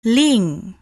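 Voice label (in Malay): "ling", Malay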